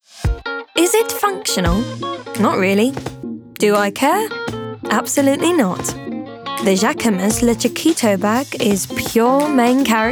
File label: RP ('Received Pronunciation'), Commercial, Cheeky, Cool, Fun; Jacquemes Bag